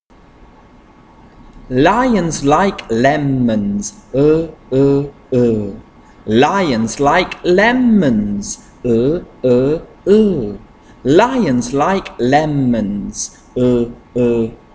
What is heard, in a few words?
consonant chant l